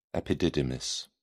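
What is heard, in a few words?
"epididymis", British English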